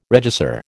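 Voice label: "regisseur", American English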